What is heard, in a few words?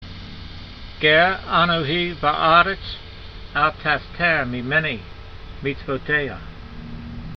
voice, hebrew language; Psalm 119:19; gar a'no'hee va'arets, al-tastar mee'min'ee meetsvoteyha